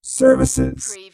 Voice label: Robot voice, "services"; lynne publishing

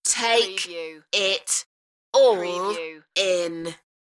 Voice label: UK female; beanstalk-audio

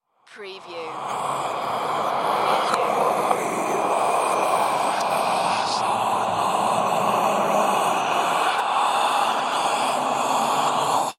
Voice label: Evil Spell Voice; Sound Effects Bible

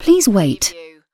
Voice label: UK female please wait; lynne publishing

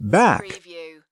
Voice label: "back", US male; lynne publishing

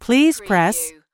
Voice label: US female phone prompt Please press; lynne publishing

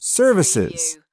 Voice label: "services", US male; lynne publishing

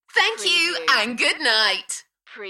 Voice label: UK female Thank you and good night Enthusiastic; beanstalk audio

